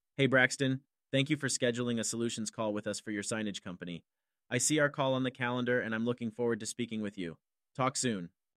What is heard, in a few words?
Voice AI